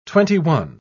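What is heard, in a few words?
"twenty-one", English, British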